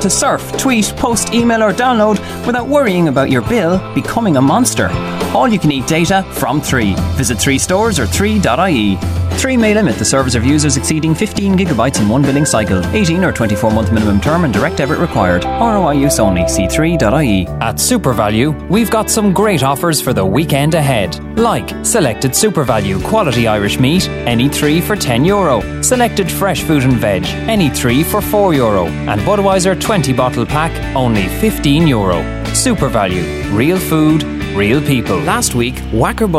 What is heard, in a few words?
Voice Over